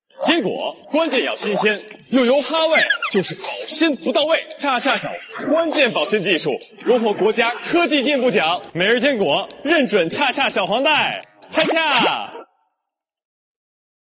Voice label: vocals; 洽洽小黄袋每日坚果tvc